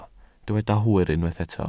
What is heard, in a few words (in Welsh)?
"hwyr", Welsh